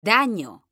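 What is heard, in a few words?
"DAÑO", Spanish; mekanta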